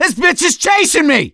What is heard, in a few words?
witch chasing; gambler